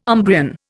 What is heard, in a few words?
"Umbrian", Indian